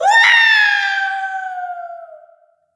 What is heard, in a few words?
Troll VO Fall; Troll VO Fall 01; gamerip